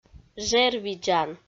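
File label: "жервидзан" Komi, female; audio dict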